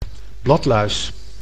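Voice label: "bladluis", Dutch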